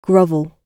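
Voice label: "grovel", English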